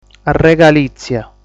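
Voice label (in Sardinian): "Arregalìtzia", Sardinian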